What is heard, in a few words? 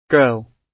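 "girl", British English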